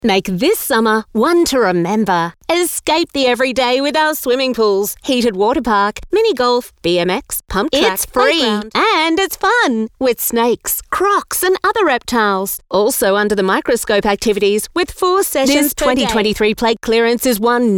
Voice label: Hard Sell